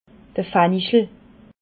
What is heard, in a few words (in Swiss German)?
"fanchel", alsace